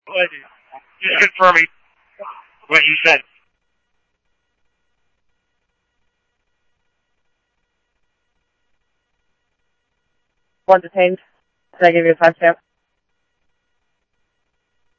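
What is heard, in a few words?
Scottsdale Police Department PD1 Scottsdale Police, scanner audio; H-Scottsdale; SCTSDLE PD - PD1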